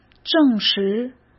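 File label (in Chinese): "证实", Mandarin Chinese; hsk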